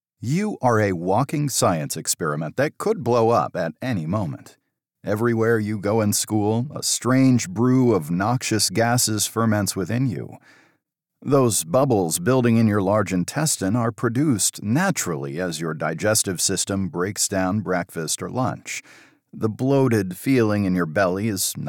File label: Nonfiction, audiobooks